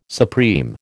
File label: "supreme", American